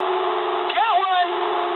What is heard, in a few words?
eng player killed enemy mood med, speech eng